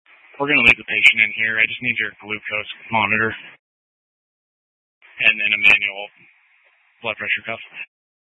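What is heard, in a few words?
Incident Tactical 1, Scanner audio recording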